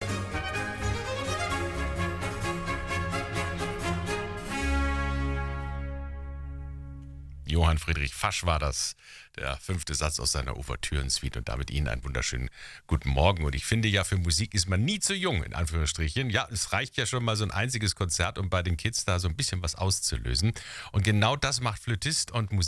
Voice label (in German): Aircheck, Klassik Radio; Klassik fuer Kinder